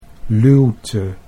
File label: "luwte", Dutch